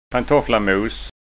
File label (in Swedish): "pantofflamos", skanska ord